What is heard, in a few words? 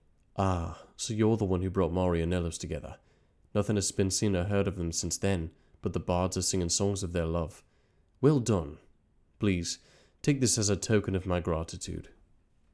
breton english, voice auditions